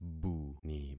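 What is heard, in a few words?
"buni", concatenated syllables; Affixing experiment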